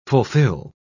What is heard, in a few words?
"fulfill", pronounce